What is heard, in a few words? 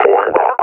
retreat, combine officer vo; Half Life Alyx; Half Life Model Pack